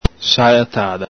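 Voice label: "salatada", Veneto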